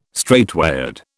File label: "straightway", British